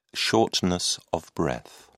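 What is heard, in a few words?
"shortness of breath", British English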